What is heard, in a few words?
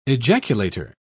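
"ejaculator", British English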